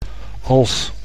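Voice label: "als", Dutch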